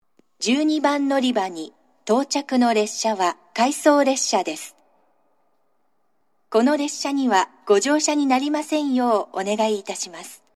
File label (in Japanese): 12番のりば接近放送（回送列車）; shin omura